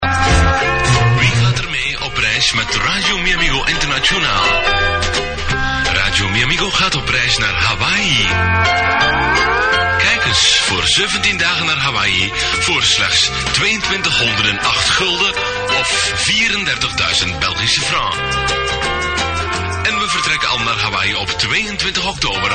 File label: commercial